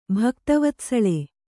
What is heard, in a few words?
"ಭಕ್ತವತ್ಸಳೆ", Kannada